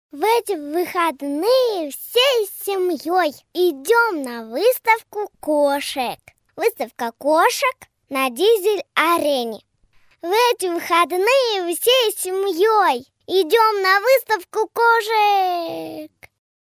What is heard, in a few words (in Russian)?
devochka 6 let